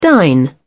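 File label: "dine out", British English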